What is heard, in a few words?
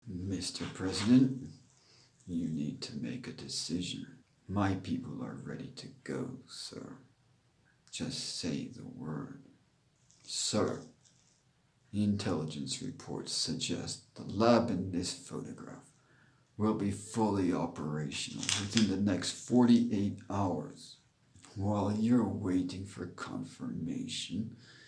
US Eng Clean North East New England Wash DC